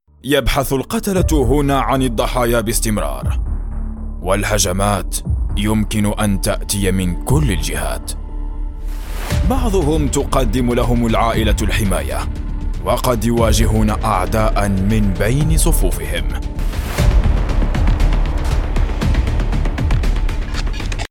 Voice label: Arabic Voiceover